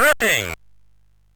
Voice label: MOS6581R2, Electronic Speech Systems; Slapshot